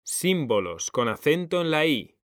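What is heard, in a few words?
"símbolos", Spanish, male; mekanta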